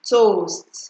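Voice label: "toast", Nigerian Pidgin, female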